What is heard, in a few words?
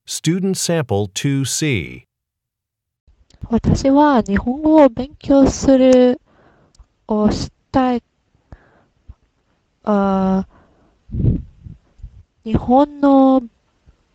japanese conversation